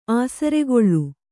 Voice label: "ಆಸರ್ಗಳೆ", Kannada

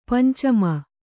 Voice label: "ಪಂಚಮಿ", Kannada

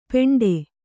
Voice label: "ಪೇಂಠೆ", kannada

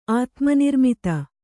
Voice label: "ಆತ್ಮನಿರ್ಮಿತ", Kannada

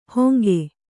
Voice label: "ಹೊಂಗೆ", Kannada

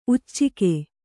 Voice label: "ಉಚ್ಚುಕ", Kannada

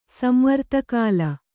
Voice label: "ಸಂವರ್ತಕಾಲ", Kannada

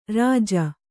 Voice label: "ಋಜು", Kannada

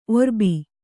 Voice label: "ಒರ್ಬ", Kannada

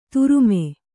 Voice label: "ಧರುಮ", Kannada

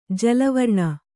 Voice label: "ಜಲವರ್ಣ", Kannada

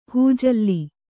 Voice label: "ಹಿಜ್ಜಲ", Kannada

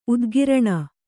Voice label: "ಉದ್ಗರಣೆ", Kannada

